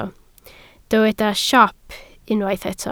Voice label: "siop", Welsh